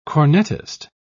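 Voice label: "cornetist", British English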